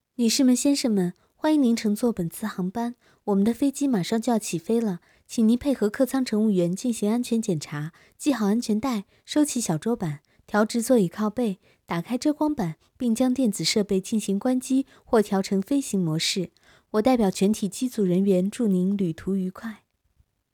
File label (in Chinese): 航空广播